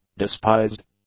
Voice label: "despised", english; adjectives